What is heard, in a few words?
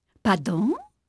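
French female; Pirates Of The Caribbean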